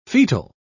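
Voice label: "foetal", British English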